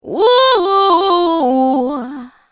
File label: autotuned